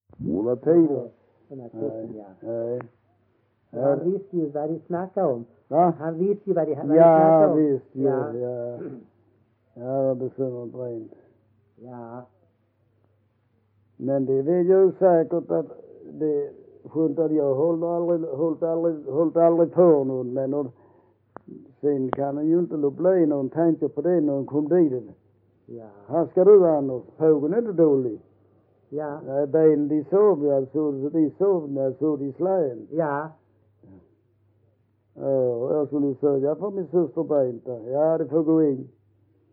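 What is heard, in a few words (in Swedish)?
Vollsjö Skåne, dialekter; Folkminnen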